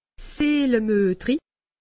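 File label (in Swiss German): "seelemudrig", Alsatian; medecine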